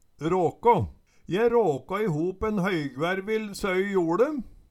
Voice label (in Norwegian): "råkå", Numedalsmål; Je råkå i hop en høygvervill søi joLe